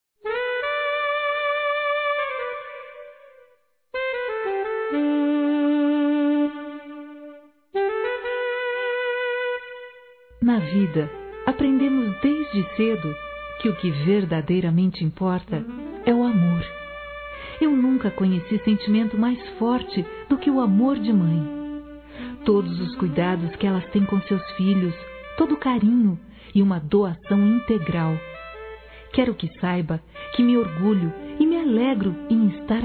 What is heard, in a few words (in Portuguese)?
Madrasta f; Telemensagens 2017